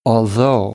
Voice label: "although", American English; ɑː - ɔː